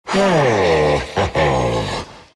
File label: happy; dragon; dota; dragon knight